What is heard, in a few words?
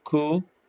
short voyels arabic alphabet